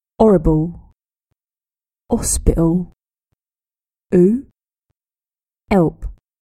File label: cockney